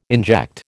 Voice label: "inject", American